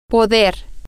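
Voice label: Spanish, female; can; to be able to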